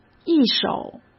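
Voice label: "一手", Mandarin Chinese; hsk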